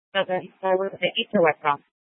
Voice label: Tempe PD, scanner; Rgnl Wireless Co-op (RWC)